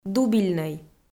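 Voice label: "дубильнӧй", Komi, female; kpv audio dict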